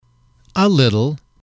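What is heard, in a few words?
a Little, english, male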